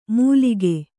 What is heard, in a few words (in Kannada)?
"ಮೂಲಿಗೆ", Kannada